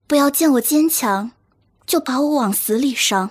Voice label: girl; shaoxiadiablo